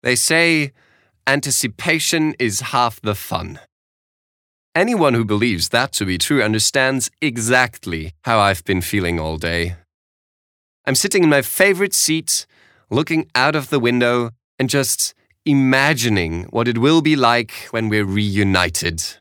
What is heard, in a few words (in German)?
Eng US Lifes Little Pleasures Freundlich